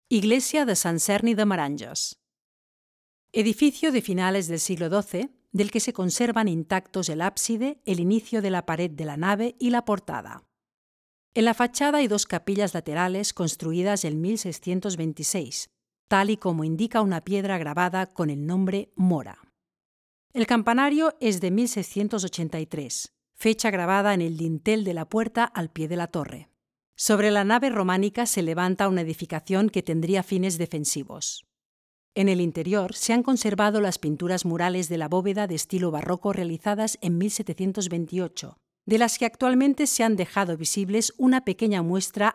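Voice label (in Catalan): locucio castella; sant serni meranges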